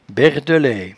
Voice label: "bairdelaie", patois jura

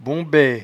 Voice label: "bombaie", patois jura

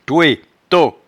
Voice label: "toueto", patois jura

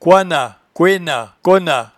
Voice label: "coinat", patois jura

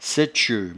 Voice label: "saitcheur", patois jura

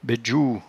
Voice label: "baidjoue", patois jura

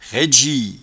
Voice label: "raidgie", patois jura

